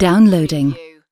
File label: UK female "downloading"; lynne publishing